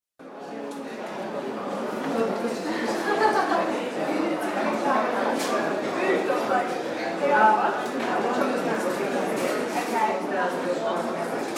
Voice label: Hotel Foyer Conversation And Laughter With Dining Noise; Restaurant Sound Effects